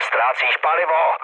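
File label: tank damaged, Czech, mood low; War Thunder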